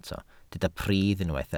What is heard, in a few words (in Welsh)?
"pridd" Welsh